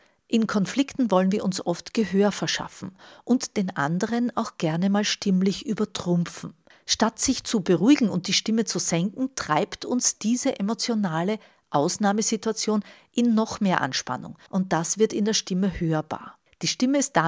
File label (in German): streitstimmen